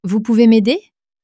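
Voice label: "vous pouvez m'aider", French, female; flashcards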